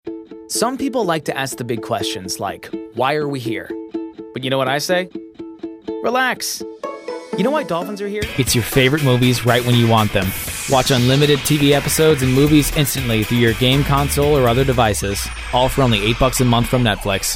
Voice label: Voice Talent Commercial